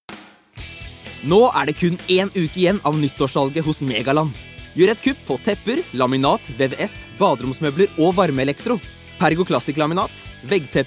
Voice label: no hard sell, norsk, mann